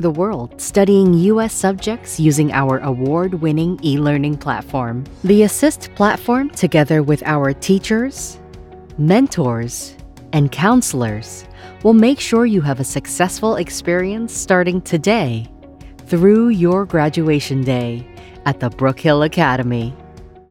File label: english american corporate videos